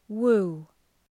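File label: "woo", English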